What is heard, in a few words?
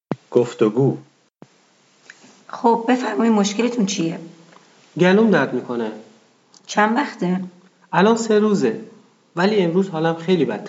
Dialog, persian